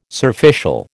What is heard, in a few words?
"surficial", American